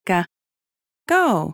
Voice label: babys sound audio GO